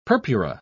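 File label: "purpura", British English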